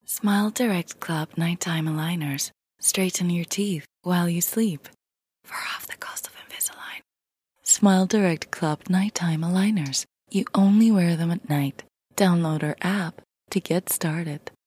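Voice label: Greek Cypriot, English accented; GoLocalise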